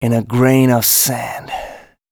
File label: male, spoken hooks; Mutekki Media; Dance Ultra Voice VOL-1